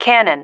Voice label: "cannon", female